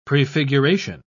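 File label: "prefiguration", English, British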